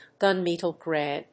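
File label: "gunmetal gray", English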